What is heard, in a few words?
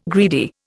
"greedy", Indian